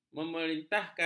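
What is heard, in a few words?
"memerintahkan", Malay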